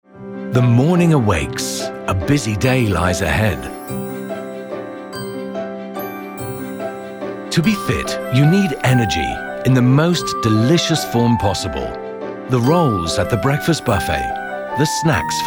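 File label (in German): British English Werbung Freundlich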